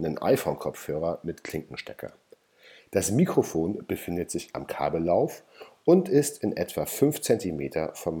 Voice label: Test Apple EarPods